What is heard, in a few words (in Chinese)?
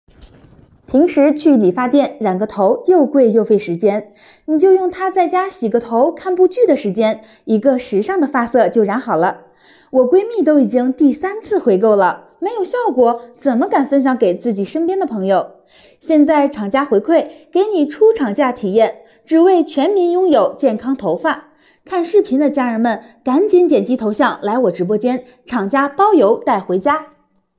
广告